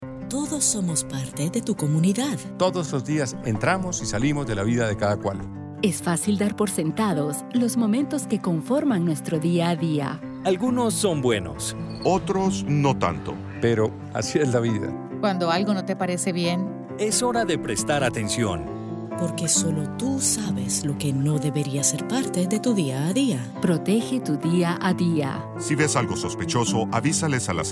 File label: See Say Spanish